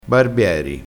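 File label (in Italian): "barbieri", vocabolario dialettale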